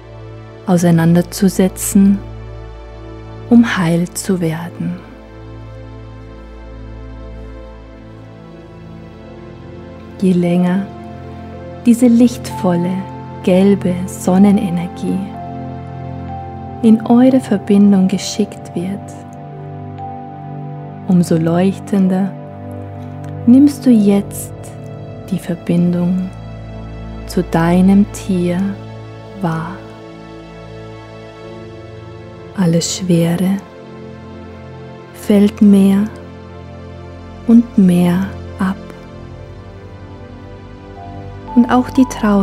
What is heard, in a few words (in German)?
Meditation; Seelenreise Meditation zur Trauerarbeit